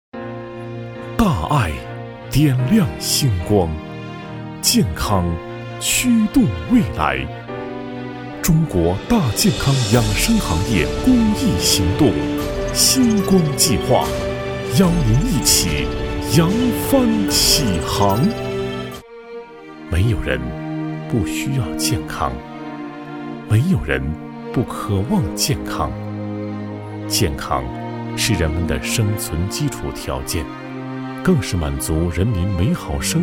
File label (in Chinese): 男 公益活动宣传; 心光计划